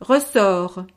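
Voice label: "ressort", French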